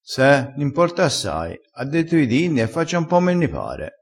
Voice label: "m importa", crespinese

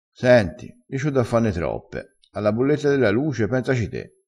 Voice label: "te", crespinese

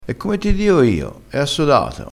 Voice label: "assodato", crespinese

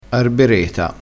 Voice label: "arbereta", crespinese

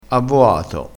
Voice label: "avvoato", crespinese

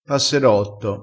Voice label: "passerotto", crespinese

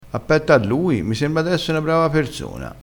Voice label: "appetto", crespinese

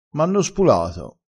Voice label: "spula", crespinese

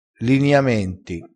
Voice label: "liniamenti", crespinese

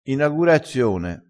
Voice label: "inagurazione", crespinese

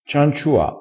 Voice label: "cianciua", crespinese

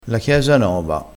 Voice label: "chiesa nova", crespinese

